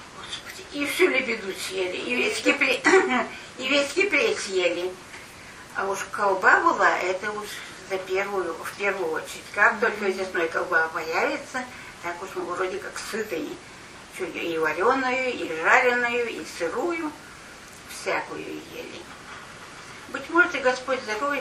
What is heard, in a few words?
middle ob dialect